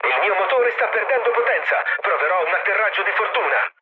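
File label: Italian damaged engine mood low, speech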